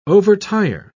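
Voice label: "overtire", English, British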